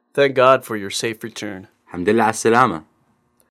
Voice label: iraqi, Thank God for your safe return ham-dil-lah 'as-salama حمد الله عالسلامه